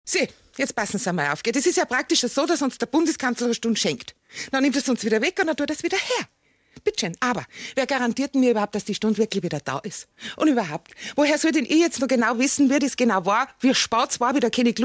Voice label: dialekt

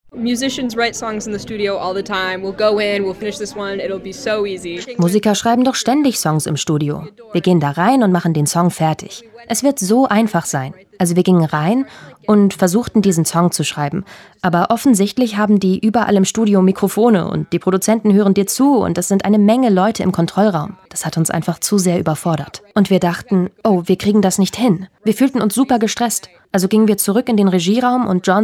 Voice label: Voice Over jugendlich; DLF; LOFT VOICES

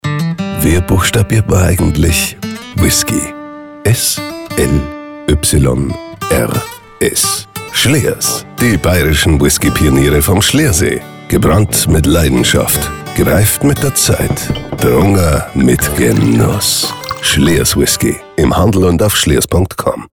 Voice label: Spot; SLYRS

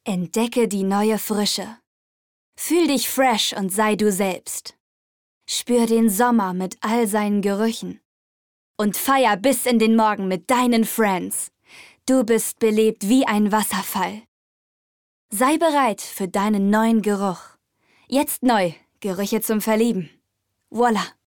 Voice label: Sprachprobe Werbung, Off, Commercial (Werbung), German

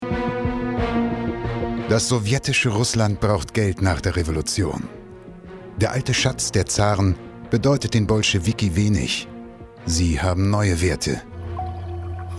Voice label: kommentar

